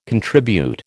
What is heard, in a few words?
"contribute", American